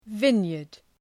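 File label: "vineyard", English word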